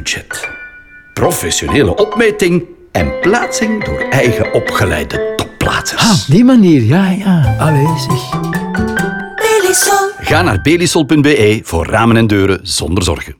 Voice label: Radio NL Piano; 30s; Belisol